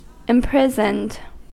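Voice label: "imprisoned", US English; pronunciations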